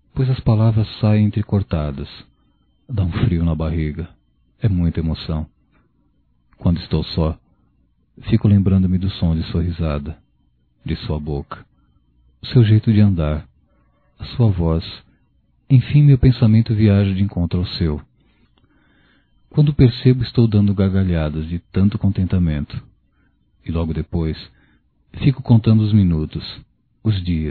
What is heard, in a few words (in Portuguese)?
conquista Que Deu Certo Masculino, Só Voz; Telemensagens; Telemensagens 2017